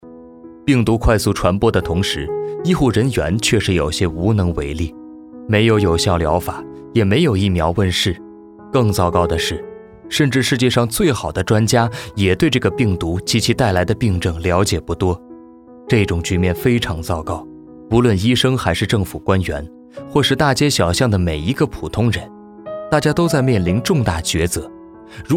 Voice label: 有声读物社科类

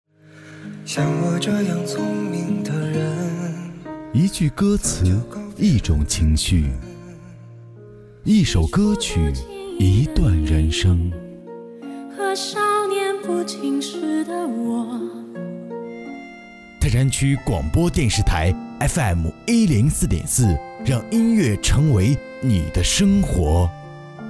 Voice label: 台宣; 泰山区

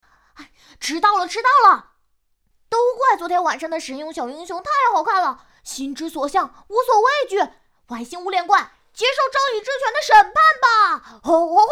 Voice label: 小学生 男童

